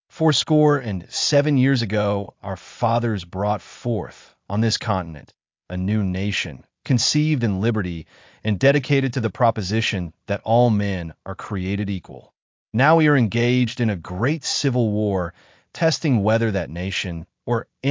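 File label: male American English; Four Score and Seven Years ago; Gettysburg Address by Lincoln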